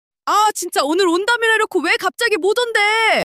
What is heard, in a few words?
anger; monologue